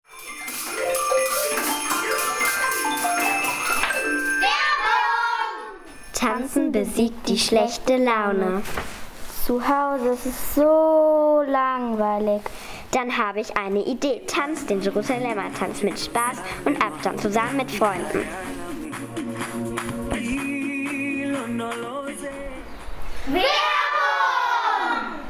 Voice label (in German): Werbung Klasse2; earsinnig hoeren 2021; muehlbergschule frankfurt